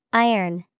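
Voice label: "Iron", English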